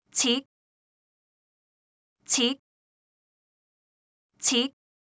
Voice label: "齒", cantonese; Traditional Chinese

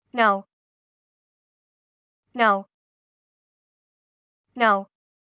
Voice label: "牛", cantonese; Traditional Chinese

